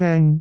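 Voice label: "beng3", Cantonese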